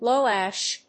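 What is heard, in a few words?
"low ash", English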